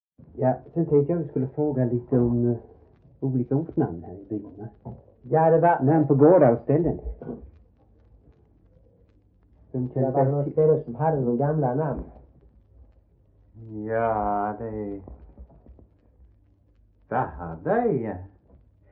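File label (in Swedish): Äspö Skåne, dialekter svenska sagor; Folkminnen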